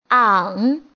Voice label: "ang", Chinese; 중국어 복운모, 부성운모 연습